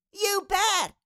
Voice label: Cartoon little child voice; you bet

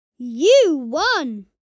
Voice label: You won kid boy vocalization

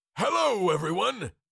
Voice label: Cartoon lion voice hello everyone

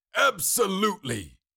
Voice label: Cartoon lion voice; absolutely; Cartoon Voice Sound Effects

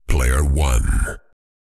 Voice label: Deep male voice player one

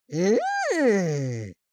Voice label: surprise exclamation voice; Minion; minions

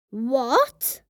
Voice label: "What" boy child kid

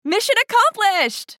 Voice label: Mission accomplished game win female voice; success

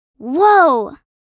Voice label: Teen girl surprised "woah"; misc human sound effects

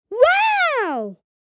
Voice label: Wow surprise boy child kid

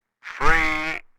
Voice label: CB radio male voice says

